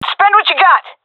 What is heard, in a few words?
request spend, fem; vo; agents; Source Model Packs; Counter Strike Model Pack; CS2